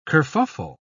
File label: "kerfuffle", pronounce